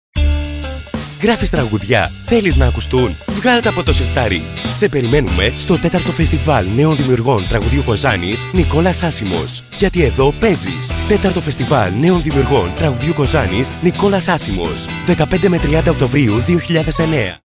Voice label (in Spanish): locutor griego greek voiceover; Male Greek voiceover